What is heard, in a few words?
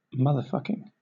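"motherfucking", British English; pronunciations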